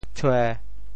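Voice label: "tshue1", Teochew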